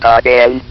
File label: "AGAIN", votrax, video games